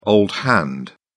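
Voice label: "old hand", British English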